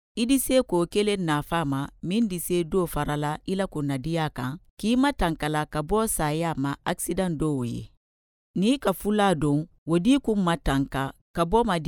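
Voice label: Mandinka from Guine Conakry older, female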